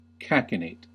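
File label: "cachinnate", US English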